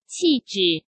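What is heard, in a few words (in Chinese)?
"气质", Mandarin Chinese; chinese flashcards; hsk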